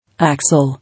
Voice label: "Axle", English